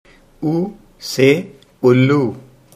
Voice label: "ulu", Hindi